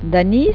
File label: "danis", Occitan